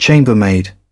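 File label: "chambermaid", British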